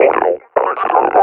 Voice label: announce kill player, combine officer; Half Life Alyx; Half Life Model Pack